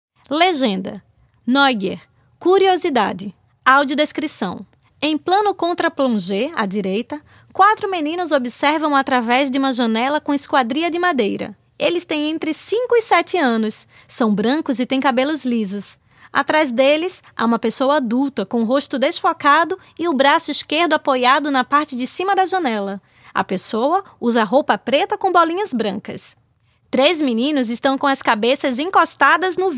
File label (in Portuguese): audiodescricao; ExpoFotoclubismoPocos